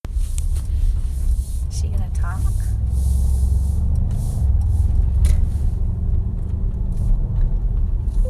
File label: Japanese; asia